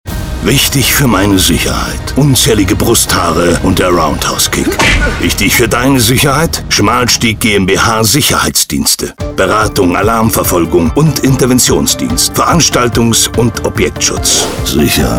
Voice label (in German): radiowerbung; sicherheitsdienst hannover